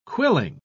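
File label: "quilling", British English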